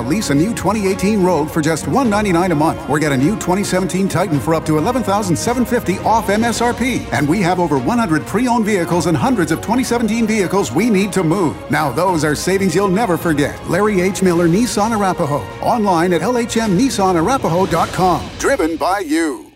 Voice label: Car Dealer; Car Dealers

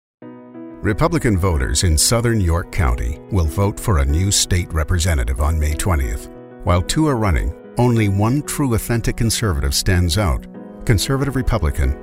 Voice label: Positive, political

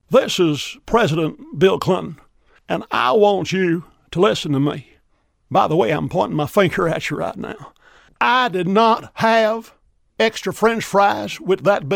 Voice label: Bill Clinton Voice Impression

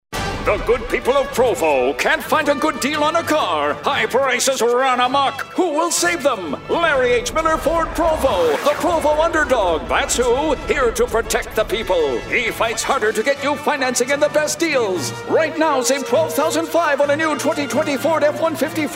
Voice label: vintage radio voice

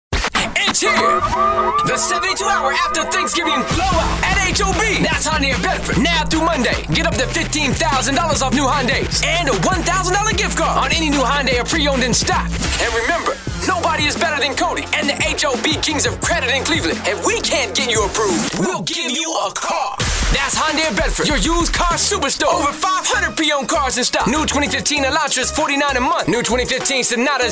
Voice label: Urban Automotive

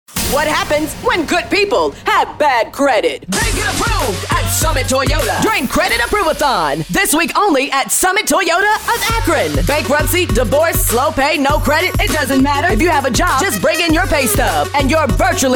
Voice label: Urban Automotive FEMALE

